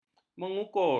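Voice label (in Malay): "mengukur", Malay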